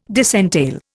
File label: "disentail", Indian